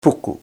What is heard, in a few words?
"puku", te reo